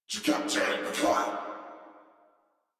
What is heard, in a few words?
surprised crewmen; Dialog; Barks; Battle; Warframe; Corpus Crewmen; Crewman B